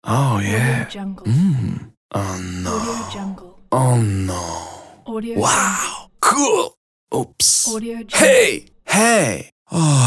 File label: male reactions